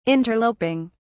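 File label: "interloping", British English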